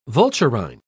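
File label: "vulturine", British English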